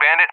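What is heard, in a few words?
"bandit", English; DCS; Allied Flight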